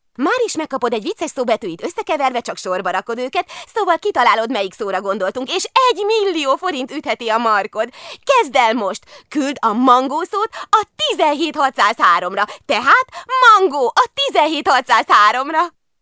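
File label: Hungarian female voice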